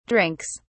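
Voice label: "drinks", British English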